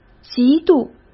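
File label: "嫉妒", Mandarin Chinese; chinese flashcards; hsk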